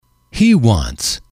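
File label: "he wants", English, male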